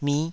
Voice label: "mih5", taiwanese

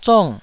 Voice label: "zong4", Taiwanese

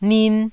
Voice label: "nin1", Taiwanese

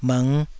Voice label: "bhng5", taiwanese syllables; 台語音節發音檔案

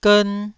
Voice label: "gern1", Taiwanese syllable

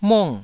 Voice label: "mung4", taiwanese syllables

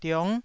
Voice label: "diong9", taiwanese syllable

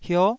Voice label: "hio9", Taiwanese syllable

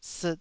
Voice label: "siit2", Taiwanese syllable

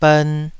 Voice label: "bern2", taiwanese syllables; 台語音節發音檔案

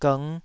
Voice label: "gng5", Taiwanese syllable

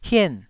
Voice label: "hien4", Taiwanese syllable

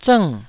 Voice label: "zng4", taiwanese

